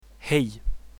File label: hello, swedish; Hej